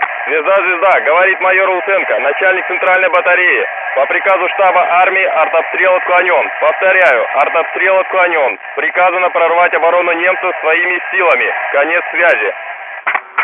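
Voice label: voice soviet infantry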